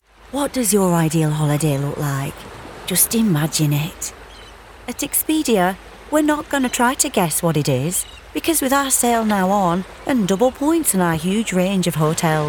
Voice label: Radio Commercial, british